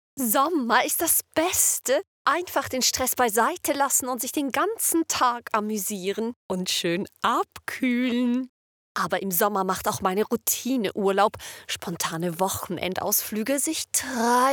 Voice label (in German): Werbung; Aktivia